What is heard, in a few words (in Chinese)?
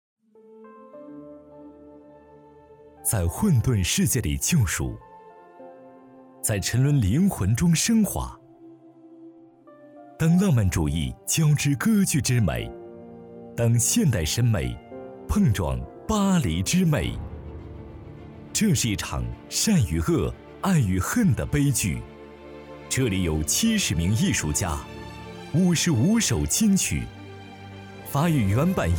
广告音乐剧质感大气